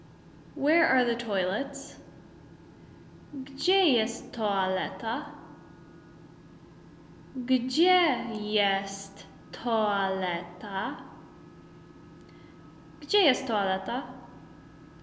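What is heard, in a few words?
Where are the toilets, Polish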